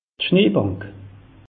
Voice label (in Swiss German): "schnee bank", alsace; meteo